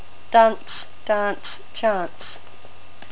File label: "dunce", NZ English